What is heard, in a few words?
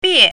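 "bie4", Mandarin Chinese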